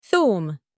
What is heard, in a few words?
garlic in arabic